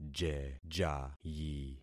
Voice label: "jejayi", concat syllables; Affixing experiment